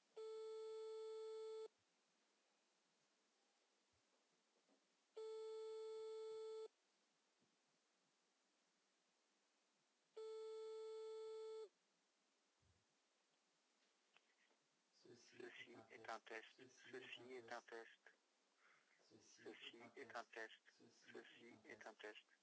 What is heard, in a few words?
2G phone call